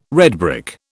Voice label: "redbrick", British